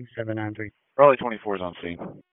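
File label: Public Safety Tactical, p25; wake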